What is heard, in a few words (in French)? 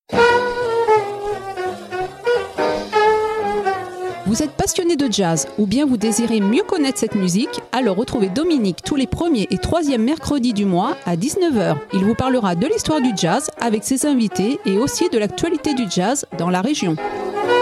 Annonce, voix radio émission; Jazz de coeur